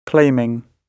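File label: "claiming", British English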